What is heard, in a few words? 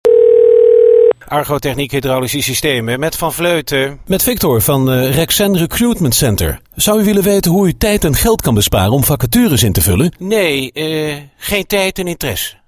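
Dutch, male, commercial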